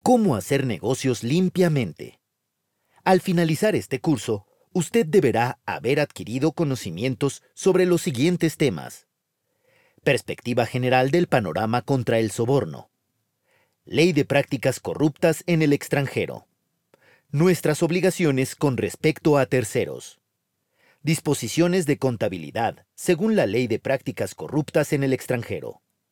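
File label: Spanish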